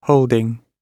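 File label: "holding", British English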